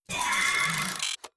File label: robot molluscoid greetings; Stellaris; synthetic dawn